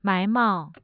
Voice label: "mai2 mao4", Mandarin tone

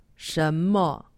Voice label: "shenmo", Chinese; Survival Chinese

